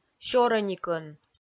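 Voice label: "сёрӧникӧн" Komi, female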